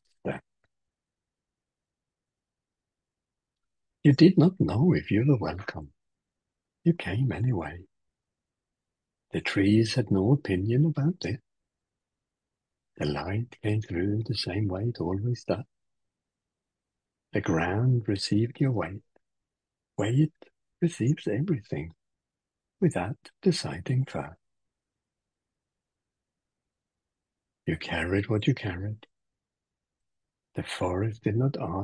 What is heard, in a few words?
Poem; FELT; Finding Calm